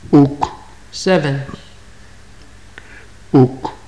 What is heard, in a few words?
numbers, maya